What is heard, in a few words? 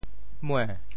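"mue2", Teochew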